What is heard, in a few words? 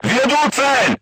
voice message target lock, crew tanks russian